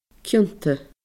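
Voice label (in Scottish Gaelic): "cionta", Scottish Gaelic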